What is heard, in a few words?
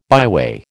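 "byway", American English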